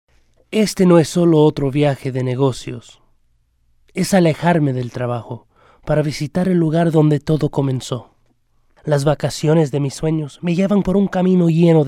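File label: latam male narration; voice demos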